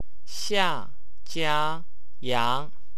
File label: "iang", wu chinese